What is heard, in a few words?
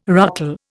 "ruckle", Indian